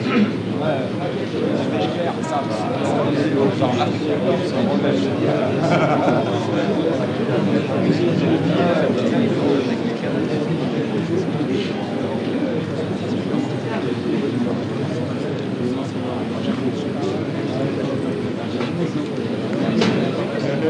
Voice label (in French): Gens Ext Voix Hom, Crowds, french